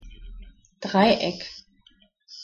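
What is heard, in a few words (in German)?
"dreieck", German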